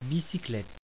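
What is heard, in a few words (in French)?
"bicyclette" French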